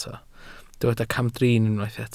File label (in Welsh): "camdrin", Welsh